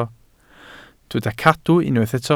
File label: "cadw", Welsh